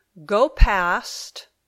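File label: "Go past", English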